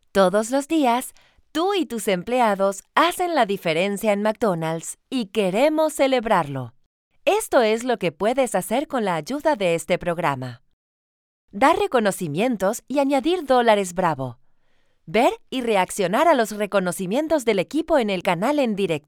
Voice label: Manager spanish; McDonald's Reward Network